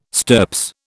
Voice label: "stirps", British